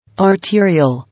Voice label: "Arterial", English

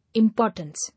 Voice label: "Importance", English

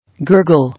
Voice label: "Gurgle", English

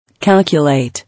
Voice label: "Calculate", English

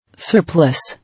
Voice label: "Surplus", English

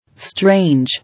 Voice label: "Strange", English

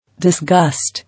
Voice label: "Disgust" English

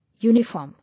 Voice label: "Uniform", English

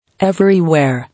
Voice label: "Everywhere", English

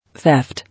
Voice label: "Theft", English

